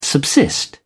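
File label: "subsist", British English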